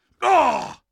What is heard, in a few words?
pain, combine soldier; hl2